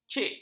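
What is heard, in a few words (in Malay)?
"cik", Malay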